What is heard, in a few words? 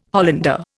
"Hollander", Indian